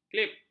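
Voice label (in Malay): "klip", Malay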